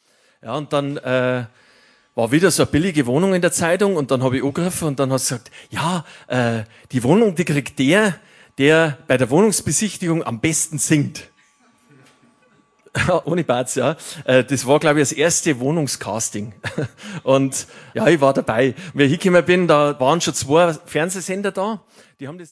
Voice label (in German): Ansage